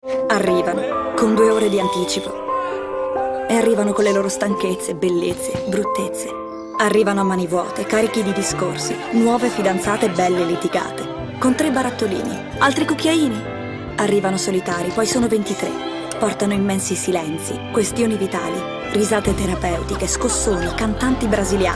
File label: female italian comercial; ideasonora